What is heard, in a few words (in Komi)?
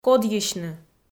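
"кодйысьны", Komi, female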